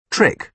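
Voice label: "trick", British English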